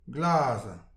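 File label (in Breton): "glazan", Breton; petit precis de prononciation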